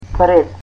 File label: in front of, Macedonian